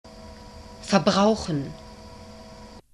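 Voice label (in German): "verbrauchen", German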